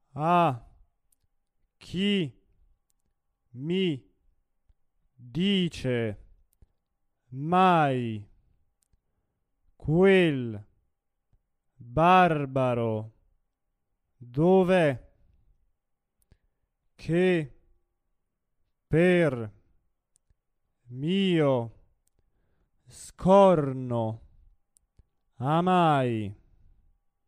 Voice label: monotono; Don Giovanni; Ah chi mi dice mai quel barbaro dov e

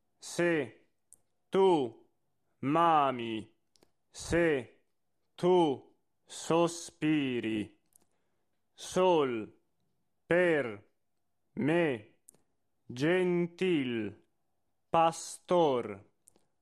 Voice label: monotono

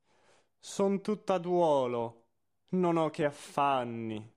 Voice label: Son tutta duolo espressivo

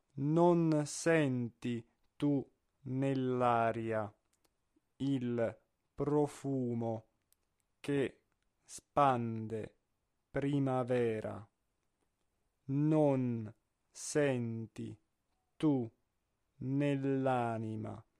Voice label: monotono; Aprile

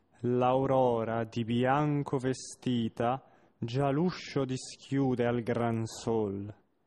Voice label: espressivo

